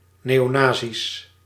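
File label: "neonazi's", Dutch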